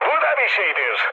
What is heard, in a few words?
trick, mood med, speech tur; War Thunder